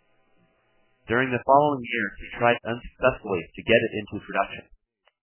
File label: male male